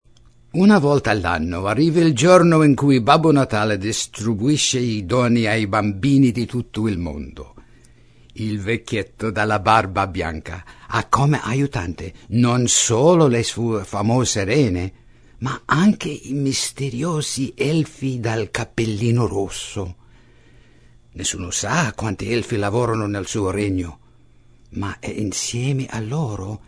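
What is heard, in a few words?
Italian male voice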